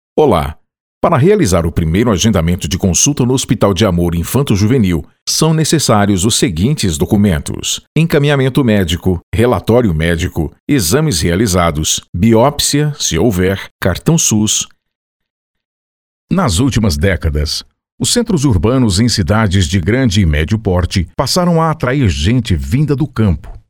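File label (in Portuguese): VOZES MASCULINAS, Padrão Padrão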